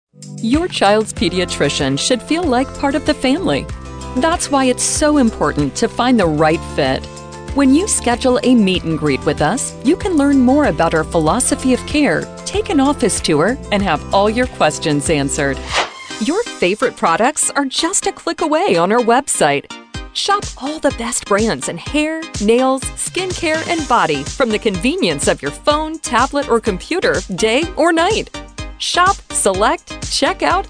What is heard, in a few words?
female, female voice artists